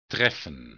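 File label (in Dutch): "treffen", Dutch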